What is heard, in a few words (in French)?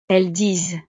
"elles disent", frances